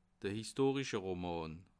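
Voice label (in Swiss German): "historische", Bas Rhin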